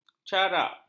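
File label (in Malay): "carak", Malay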